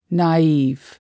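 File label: "naive", English word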